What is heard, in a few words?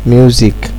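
"music", Nigerian Pidgin, male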